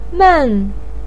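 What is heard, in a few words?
"men", Mandarin pinyin, tone 4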